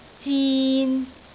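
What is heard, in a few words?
"zin3", Cantonese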